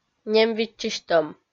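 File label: "нем виччысьтӧм", Komi, female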